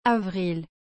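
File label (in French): "avril", French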